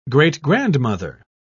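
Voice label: "great-grandmother", British English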